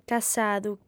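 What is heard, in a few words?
"cassadu", female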